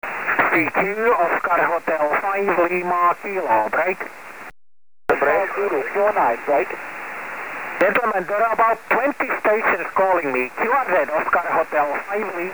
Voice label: a bit angry